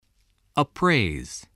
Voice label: "appraise", English